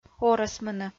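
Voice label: "орӧсмыны", Komi-Zyrian, female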